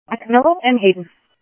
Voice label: Police, scanner; H-Scottsdale; ScottsdalePolice